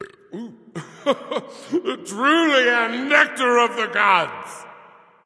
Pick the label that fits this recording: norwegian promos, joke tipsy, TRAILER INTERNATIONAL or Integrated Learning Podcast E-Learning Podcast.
joke tipsy